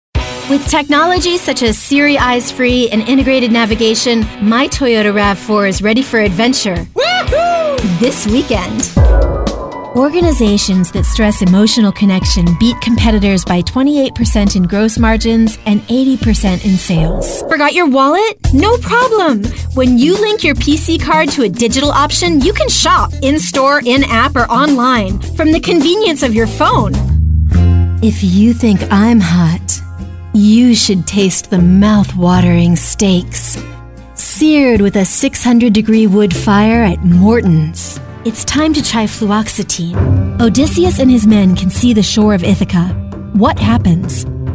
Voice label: VOICE OVER